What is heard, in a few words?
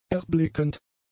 "erblickend", German; adjectives